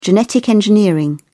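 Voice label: "genetic engineering", British English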